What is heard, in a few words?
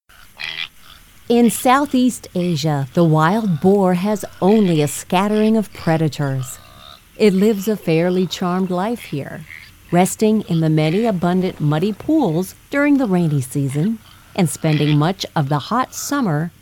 english american commercial